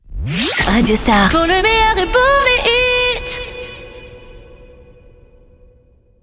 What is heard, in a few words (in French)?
ID SWEEP POUR LE MEILLEUR FEMALE; COMBLAGES RADIO STAR; PLEIN COEUR